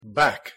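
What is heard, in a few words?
pronunciation "back", English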